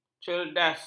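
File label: "cerdas", Malay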